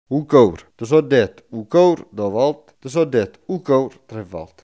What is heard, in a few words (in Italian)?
"cuore", coratino; CŎRE